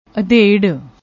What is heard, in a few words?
"adher", Punjabi; ਅਧੇੜ